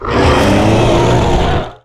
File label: anger, strogg; Quake 4 Alpha; strogg tik